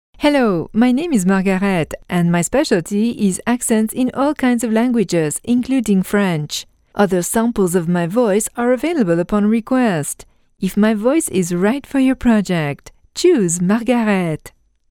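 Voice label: French English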